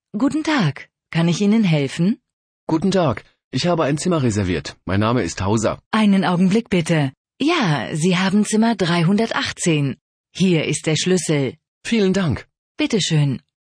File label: dialog